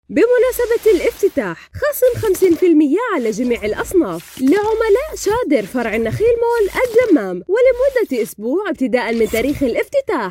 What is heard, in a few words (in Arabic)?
اعلان صوت نسائي